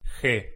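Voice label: "g", Spanish pronunciation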